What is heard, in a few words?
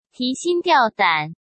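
"提心吊胆", Mandarin Chinese; hsk; chinese-flashcards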